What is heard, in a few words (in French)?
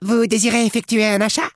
desire rachat, gnome male; PhoenixCraft-1.16.5; PhoenixNPCPack; minecraft